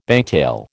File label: "bangtail", American